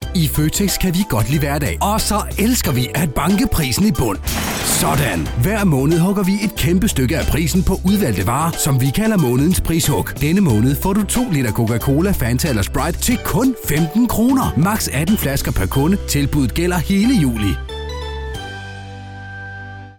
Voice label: Instore; Fotex